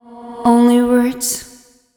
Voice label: fem spo, Vocals; Mutekki Media; Diamond Vocals 2